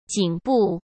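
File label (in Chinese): "颈部", Mandarin Chinese; hsk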